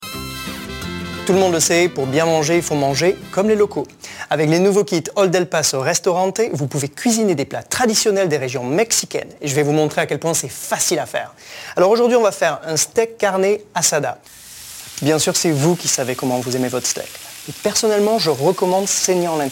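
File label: French commercial; Old El Paso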